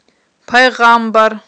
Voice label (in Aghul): "пайгъамбар", Aghul